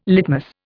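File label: "litmus", Indian English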